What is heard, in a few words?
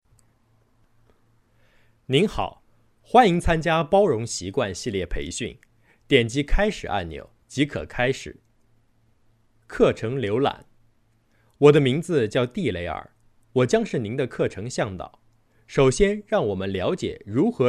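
male, narration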